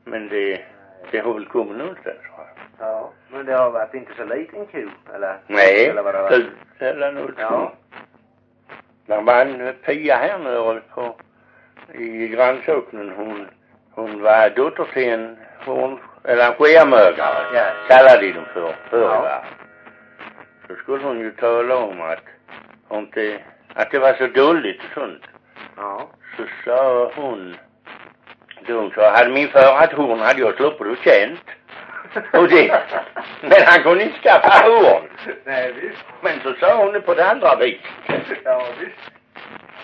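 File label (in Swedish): Perstorp Skåne, dialekter, svenska sagor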